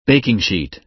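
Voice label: "baking sheet", American English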